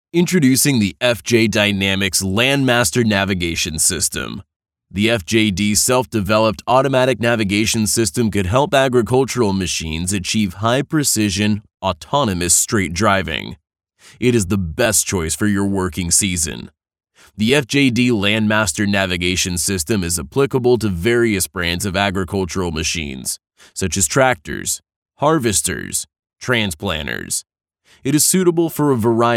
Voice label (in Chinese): 视频纯英文台词 常规低通